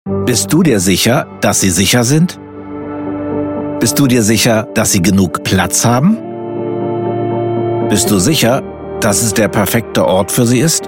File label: german others